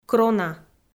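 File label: "крона", Komi, female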